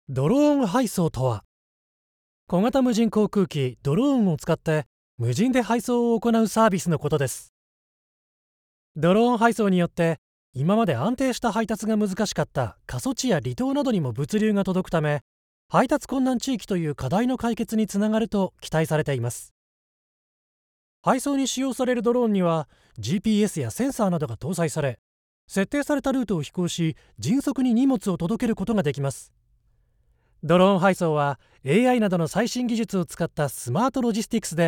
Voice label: male